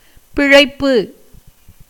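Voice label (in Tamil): "பிழைப்பு", Tamil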